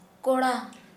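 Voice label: Santali, female, boy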